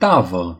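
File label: "tray", Romanian; gcompris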